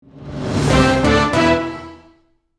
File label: Breaking news news anchor; Film and TV